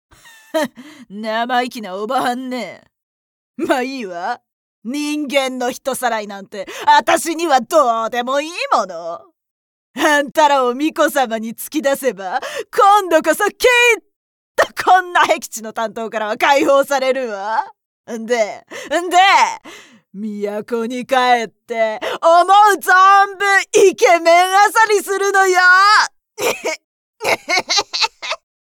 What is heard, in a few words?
vulgar